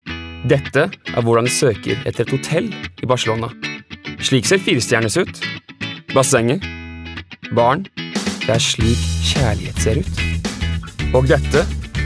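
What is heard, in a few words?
Norwegian Commercial; GoLocalise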